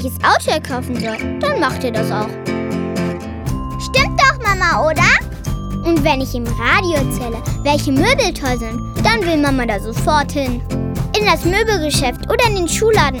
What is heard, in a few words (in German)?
Werbung Kinderstimmen